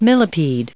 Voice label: "millipede", us

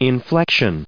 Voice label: "inflection", us

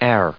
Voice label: "are", us

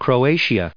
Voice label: "croatia", us

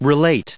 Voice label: "relating", us

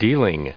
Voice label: "dealing", en us

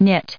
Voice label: "knit", en us

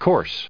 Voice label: "course", us

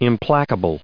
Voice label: "implacable", en us

